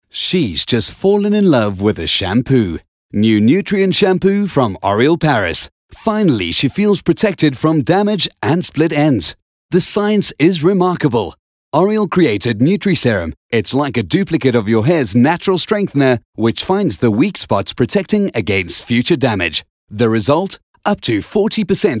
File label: English Soft Sell